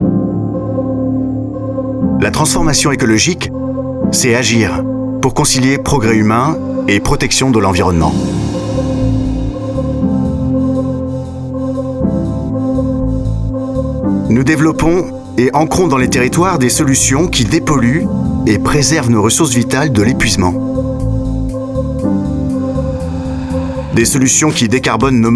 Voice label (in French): full mix FR homme; Veolia